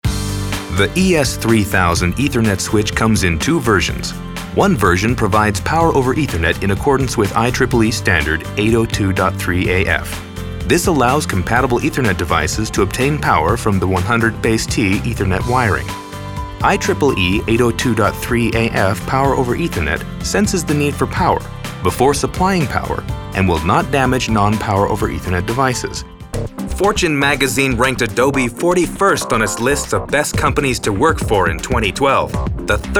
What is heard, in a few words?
Narration